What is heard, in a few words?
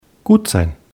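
"gut sein", German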